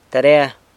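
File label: "tarea", homework, San Lucas Quiaviní Zapotec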